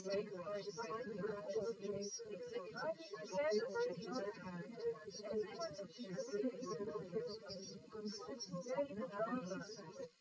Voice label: female srec 130ms 20cm sim; SiSEC11; underdetermined

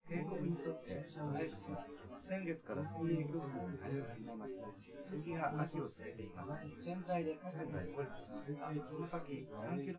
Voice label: male synth conv; SiSEC10; underdetermined

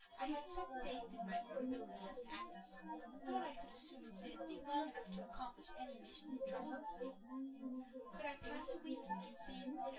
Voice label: female synthconv

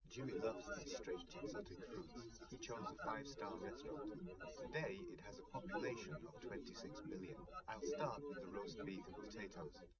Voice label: male, live rec, 130ms, 1m; SiSEC08; SiSEC underdetermined

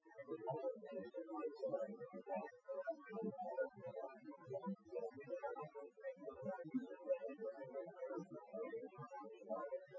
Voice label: male synthconv

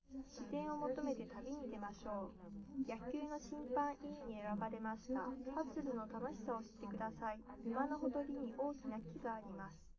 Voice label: female liverec; underdetermined; SiSEC11

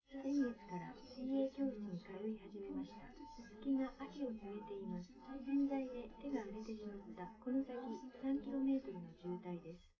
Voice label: female live rec; SiSEC11; underdetermined

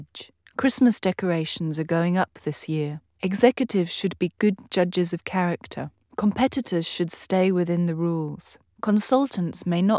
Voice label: female 380ms 50cm; SiSEC11; underdetermined

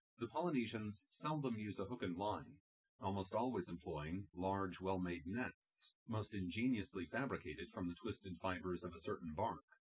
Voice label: male synthconv 130ms 1m

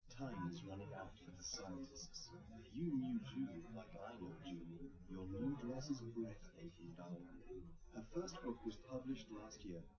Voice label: male, live rec; SiSEC11; underdetermined

